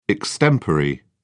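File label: "extempore", British English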